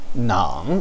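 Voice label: "nang3", Chinese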